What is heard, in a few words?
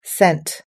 "sent", us-english